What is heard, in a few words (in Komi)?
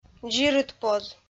"дзирыдпоз", Komi